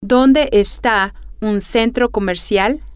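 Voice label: where is a mall, Spanish, female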